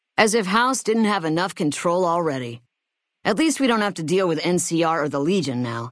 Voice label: female adult hello